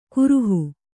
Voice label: "ಕರಹ", Kannada

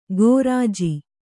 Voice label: "ಕೋರ್ಜಿ", Kannada

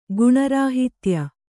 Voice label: "ಗುಣರಾಹಿತ್ಯ", Kannada

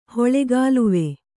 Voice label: "ಹೊಳೆಗಾಲುವೆ", kannada

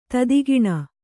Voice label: "ತೆತ್ತಗಣ್", kannada

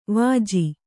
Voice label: "ವೆಜ್ಜ", Kannada

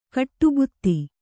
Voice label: "ಗಡಿಭತ್ತ", Kannada

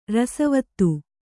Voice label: "ರಸವಾದ", Kannada

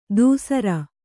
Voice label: "ದುಸ್ಸರ", Kannada

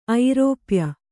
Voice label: "ಐರೋಪ್ಯ", Kannada

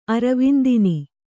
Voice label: "ಅರವಿಂದಿನಿ", Kannada

